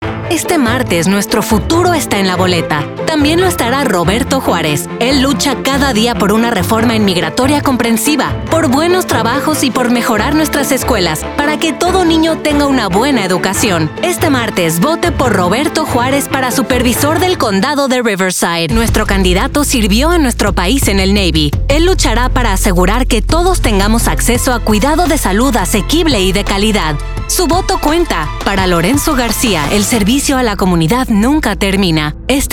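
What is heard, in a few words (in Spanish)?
Political Spanish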